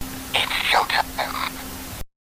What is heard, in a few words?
Snake Wiimote Sound